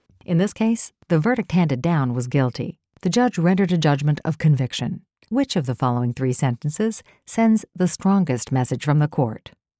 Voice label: Smooth Attractive